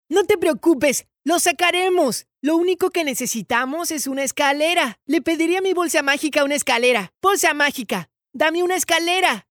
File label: Spanish Latin American animation